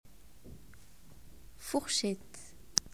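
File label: "fork", French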